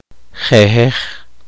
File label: poqomchi; cortar pacaya